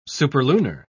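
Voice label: "superlunar", English, British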